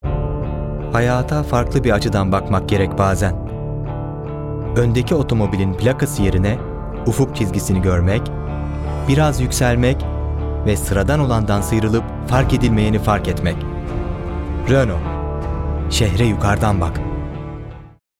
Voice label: turkish m narration

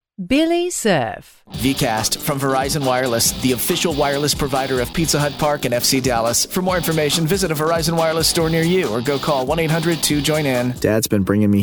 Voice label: english us m commercial, voice demos

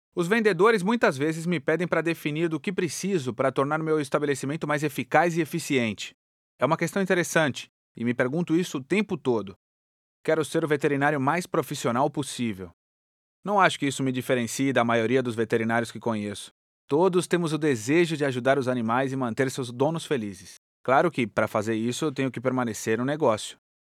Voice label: brazilian m learning, portuguese; voice demos

